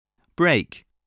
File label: "brake", English